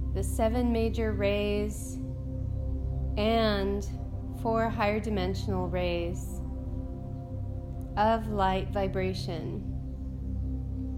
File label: Meditation